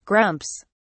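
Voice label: "grumps", British English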